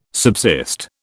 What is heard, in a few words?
"subsist", British